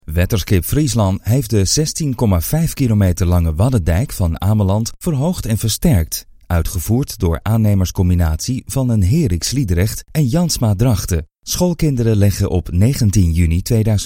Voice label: dutch audiobooks